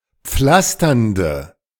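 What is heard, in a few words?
"pflasternde", German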